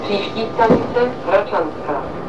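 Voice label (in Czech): příští, Vlaková hlášení, Pražské metro; Příští stanice Hradčanská.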